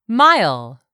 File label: "mile", English; 3000 words